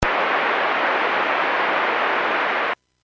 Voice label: Airports 122.7, Radio; KSTE

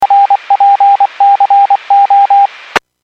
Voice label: Skywarn 146.67; Stevens Point

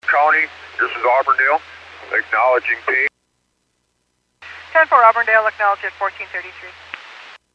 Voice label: Fire Dispatch

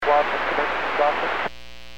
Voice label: Aviation 121.5 Emergency

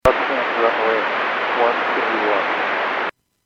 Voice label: Aviation 122.7; KSTE

